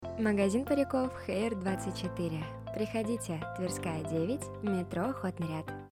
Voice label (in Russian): Реклама магазина париков